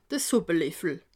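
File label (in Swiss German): "suppeleffel", Alsatian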